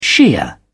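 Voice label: "shia", British English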